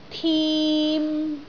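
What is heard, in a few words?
"tim3", Cantonese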